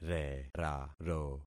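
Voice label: "reraro", concatenated syllables; Affixing experiment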